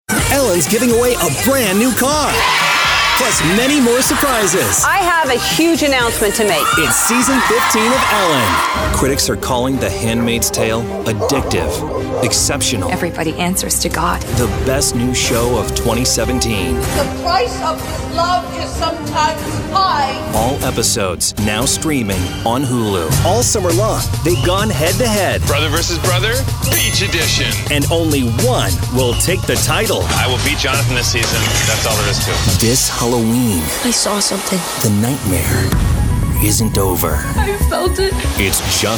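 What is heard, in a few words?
PROMO, American English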